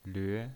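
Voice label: "lve", pinyin voice, Mandarin Chinese, second tone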